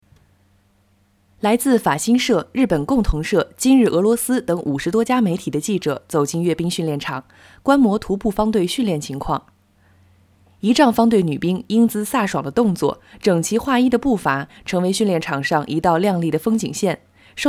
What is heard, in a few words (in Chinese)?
新闻配音